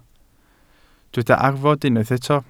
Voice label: "arfod", Welsh